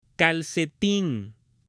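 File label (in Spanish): "calcetín", Spanish, male; ROPA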